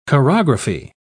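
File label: "chorography", British English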